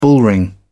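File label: "bullring", British English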